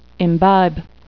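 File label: "imbibe", English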